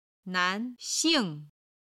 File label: "nan2xing4", Chinese